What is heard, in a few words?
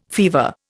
"fever", Indian